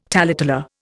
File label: "taleteller", Indian